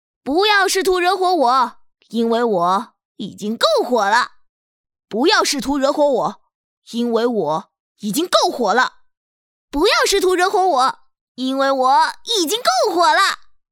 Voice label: 少御火爆直爽

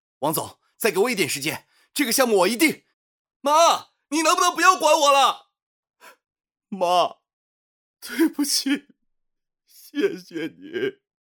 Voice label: 儿子干音

